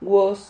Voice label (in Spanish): "guos", Silabas